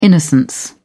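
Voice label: "innocence", British English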